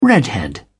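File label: "redhead", English, British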